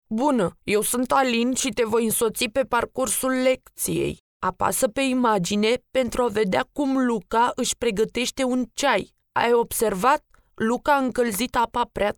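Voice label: romanian female e-learning; voice demos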